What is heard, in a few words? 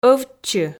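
"ӧвтчы", Komi, female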